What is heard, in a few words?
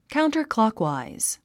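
"counterclockwise", English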